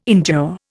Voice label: "endure", Indian